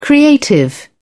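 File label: "creative", English, British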